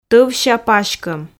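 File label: "товся паськом", Komi, female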